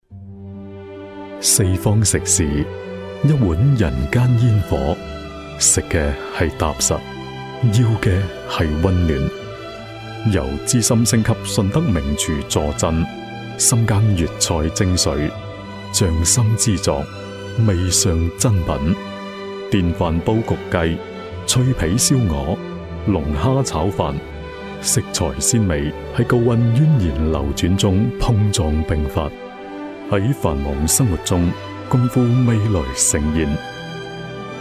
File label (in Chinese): 粤男 宣传片; 粤菜师傅